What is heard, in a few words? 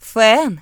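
hair dryer, Ukrainian; gcompris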